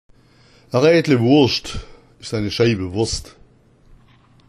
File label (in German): "raedle", schwaebisch; a' Rädle Wurscht; A‘ Rädle Wurscht ist eine Scheibe Wurst.